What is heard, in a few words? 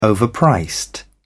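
"overpriced", British English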